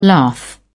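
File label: "lath", British English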